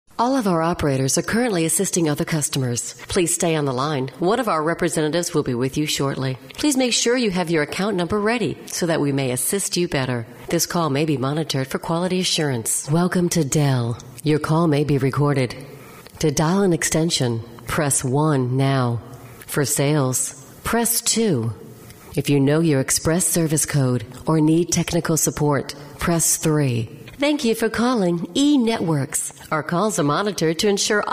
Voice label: Phone Hold IVR Female; commercial voiceover